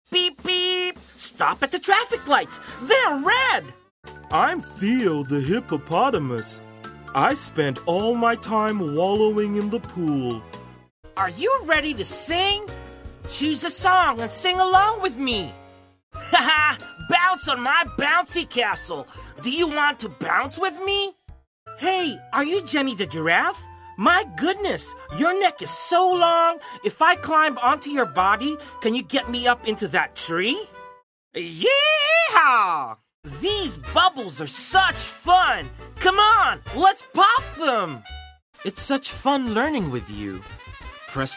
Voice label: USA TOYS